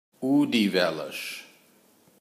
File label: "Odivelas", Portugal